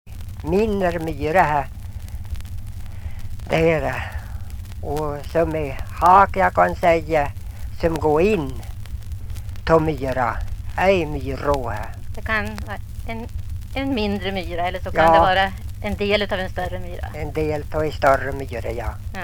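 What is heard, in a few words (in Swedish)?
Ljustorp Medelpad, dialekter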